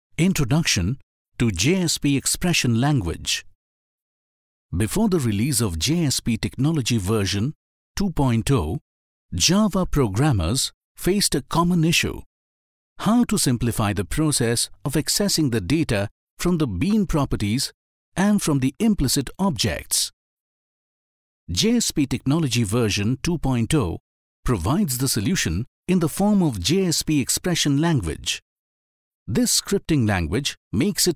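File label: English voice over Sample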